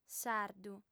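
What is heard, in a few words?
"sardu", female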